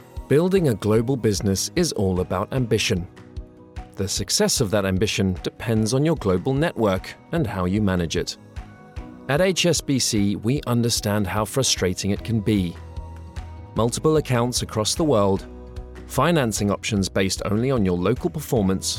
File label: Corporate; 20s -40s; Male; South African